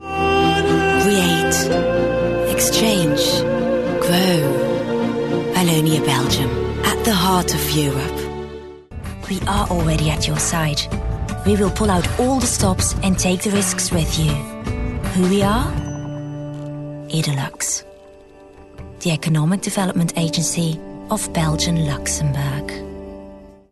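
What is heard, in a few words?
Flemish English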